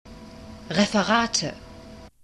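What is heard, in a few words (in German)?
"Referate", German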